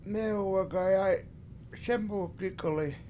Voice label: mEEhu wagayAy7 SEmu gIIk71li The Boy Is Talking Fast, Washo